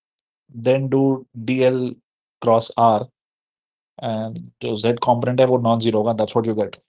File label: voice message